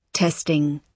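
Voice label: "testing", British English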